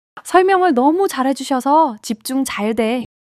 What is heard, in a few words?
joy